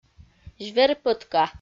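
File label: "звер-пӧтка", Komi-Zyrian, female; audio dict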